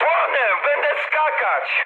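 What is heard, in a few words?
Polish on fire mood high; speech; War Thunder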